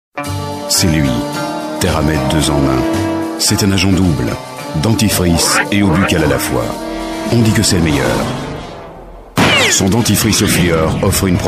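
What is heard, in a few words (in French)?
Belgian French, male, commercial; voice demos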